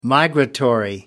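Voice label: "migratory", British English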